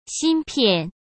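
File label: "芯片", Mandarin Chinese; chinese-flashcards; hsk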